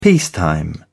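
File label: "peacetime", British English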